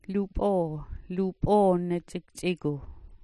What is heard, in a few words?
"lup'oo", smalgyax